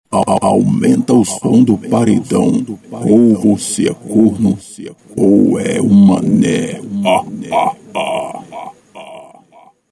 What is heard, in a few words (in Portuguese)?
vinheta off aumenta o som do paredao; aumenta o som do paredao ou vc e corno ou e um mane rsrs